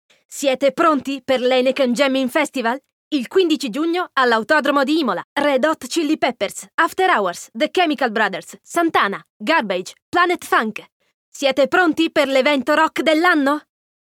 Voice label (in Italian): ITA Advertise Energy